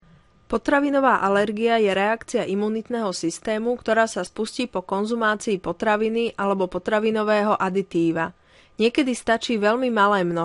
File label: Elearning lesson